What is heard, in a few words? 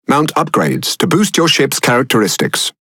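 Speech Tutorial, British English; World Of Warships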